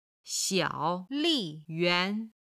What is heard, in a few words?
"xiao3li4yuan2", chinese